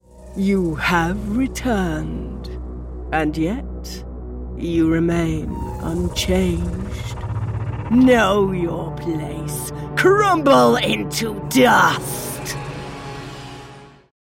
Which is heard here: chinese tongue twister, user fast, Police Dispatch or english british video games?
english british video games